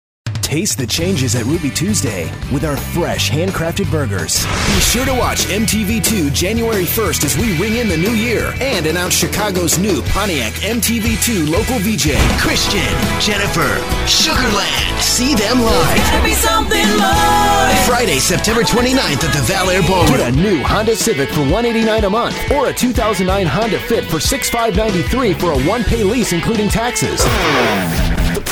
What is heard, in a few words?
happy